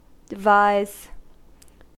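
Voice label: "devise", American English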